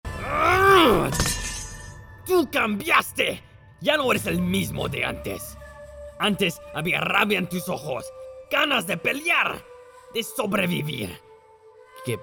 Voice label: SPAN CHARACTER

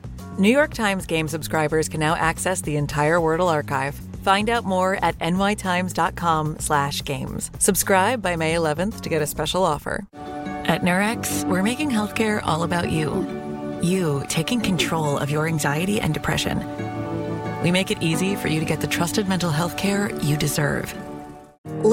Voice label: Commercial

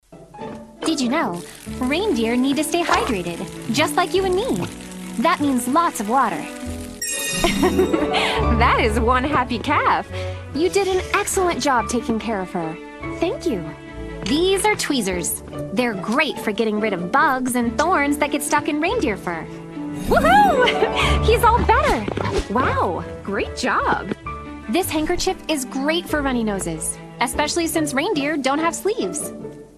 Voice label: Disney Princess Animation

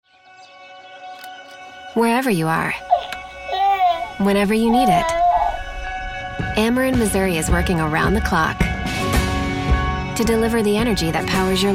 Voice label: INTIMATE SINCERE REAL; Ameren

